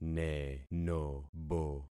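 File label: "nenobo", concatenated syllables; Affixing experiment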